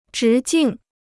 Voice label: "直径", Chinese

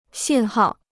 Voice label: "信号", Chinese

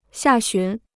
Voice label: "下旬", Chinese

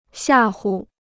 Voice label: "吓唬", Chinese

